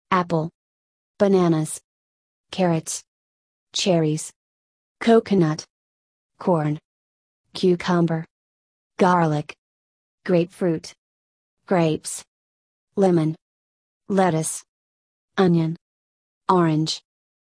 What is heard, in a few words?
usa; fruits veg